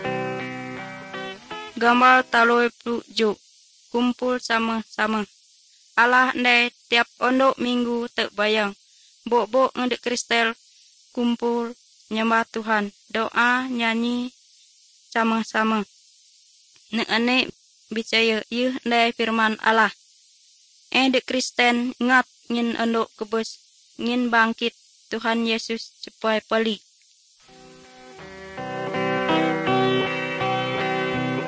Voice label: good news tengon, ngaju, drama

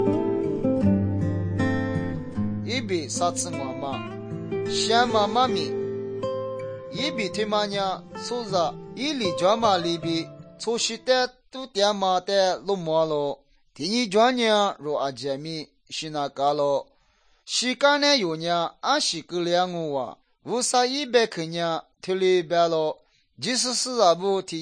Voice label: good news lisu western, drama

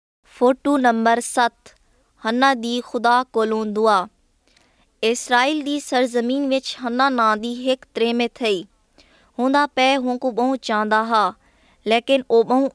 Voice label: saraiki; lll 4 servants of god; picture 7 hannah prays to god

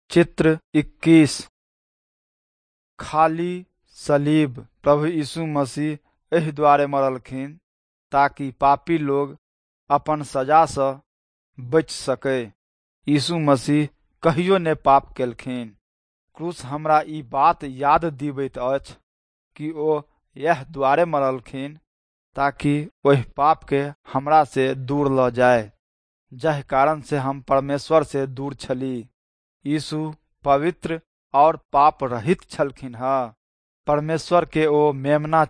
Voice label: nt drama, southern standard maithili; good news; wycliffe bible translators inc

